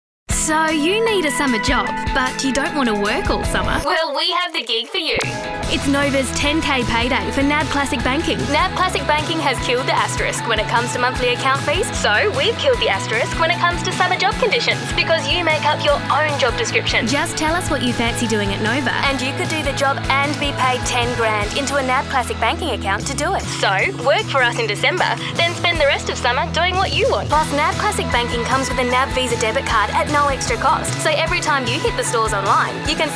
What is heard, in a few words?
RETAIL young fresh; NAB; NOVA